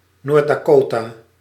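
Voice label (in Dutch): "Noord-Dakota", Dutch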